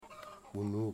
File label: "boy", Wamut